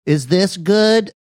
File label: saying is this good funny